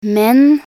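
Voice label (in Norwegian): "men", Norwegian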